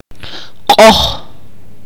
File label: "pull", Akateko